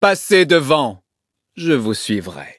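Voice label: battle, French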